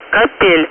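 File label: Speech, Russian, air traffic control; Callsign; DCS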